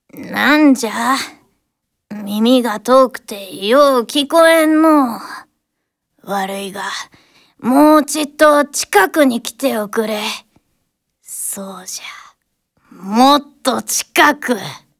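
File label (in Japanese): 老婆, ボイスサンプル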